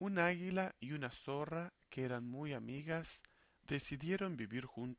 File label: male 8kHz; Speech; from 16kHz